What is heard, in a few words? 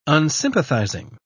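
"unsympathizing", British English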